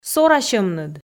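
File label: "сорасьӧмныд", Komi, female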